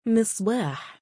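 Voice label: male "mi9baa7", Arabic; مِصْبَاح; ミスバーフ; 灯り、灯火、灯明；ランプ；照明、（電気）ランプ、電灯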